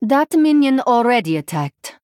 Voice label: Female Draenei ERROR MINION ATTACKED